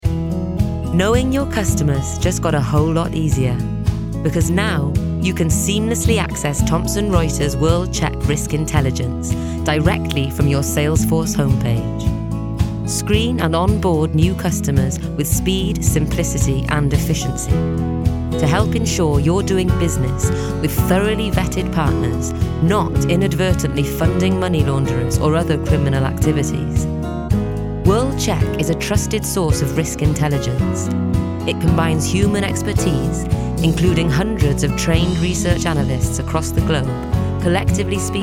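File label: CORPORATE, A rich and velvety Welsh voice.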